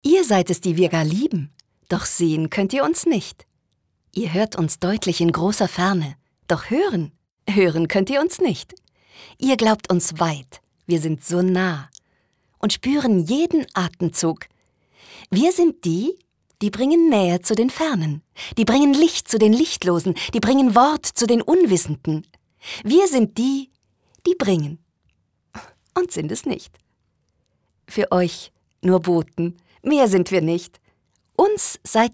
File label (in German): froehlich, frauen